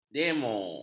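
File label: "demo", Malay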